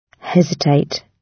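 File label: "hesitate", British English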